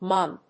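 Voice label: "mun", English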